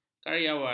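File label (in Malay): "karyawan", Malay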